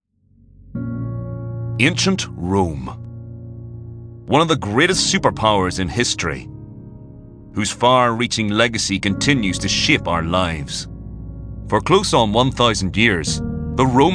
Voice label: northern irish accent documentary compilation